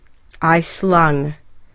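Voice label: "I slung", English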